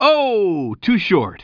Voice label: commentator